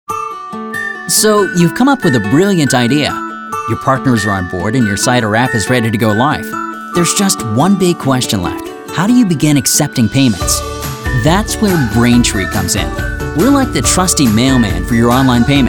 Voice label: Corp Narration

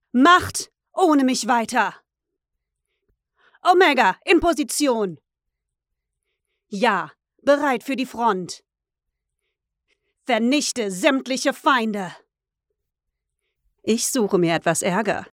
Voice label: female Soldier

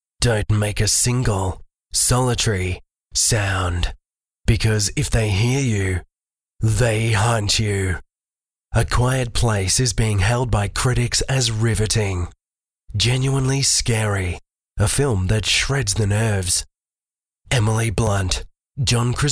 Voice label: Deep Trailer Epic Movie

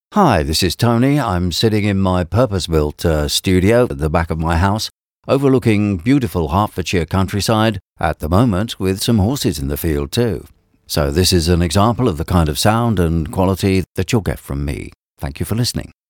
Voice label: natural speak

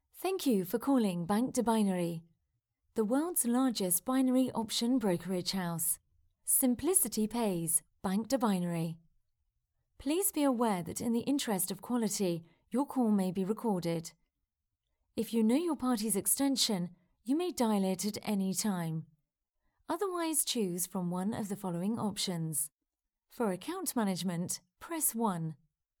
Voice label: Phone Menu; Banc De Binary

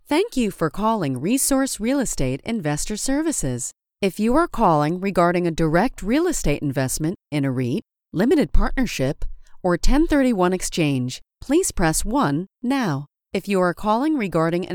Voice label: on hold IVR samples

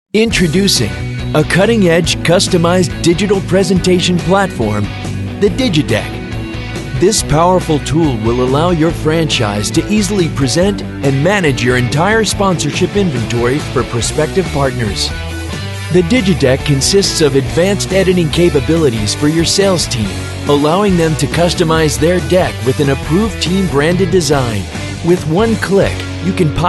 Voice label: Corporate

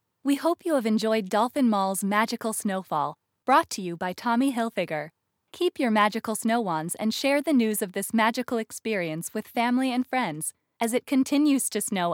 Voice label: Bilingual announcement

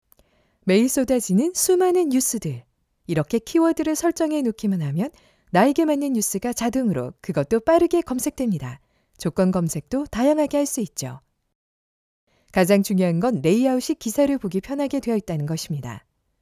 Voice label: promo

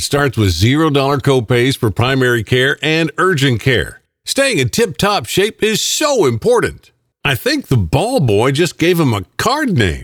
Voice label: Sports Commentator for Pickleball Championship

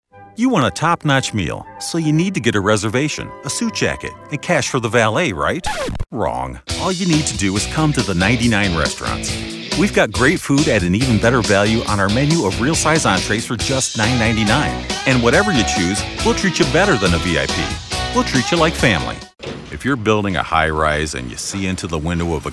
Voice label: Commercial Mix

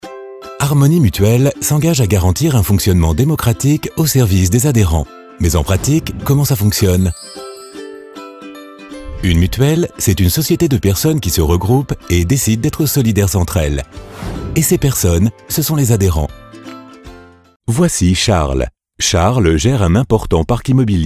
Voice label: Corporate

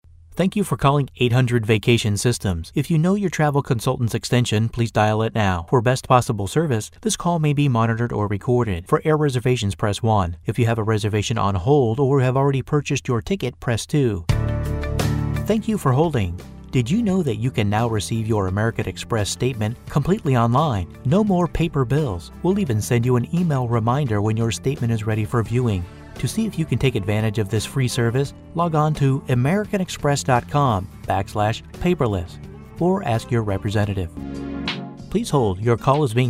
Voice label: Telephone

